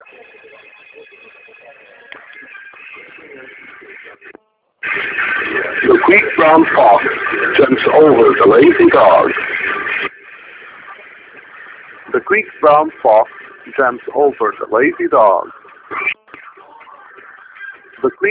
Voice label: test with tv and music; openmoko; mic sidemux test